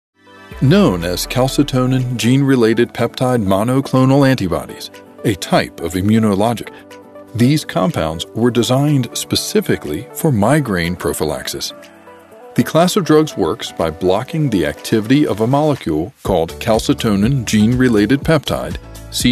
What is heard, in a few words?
english american medical narration